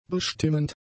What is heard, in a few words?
"bestimmend", German; adjectives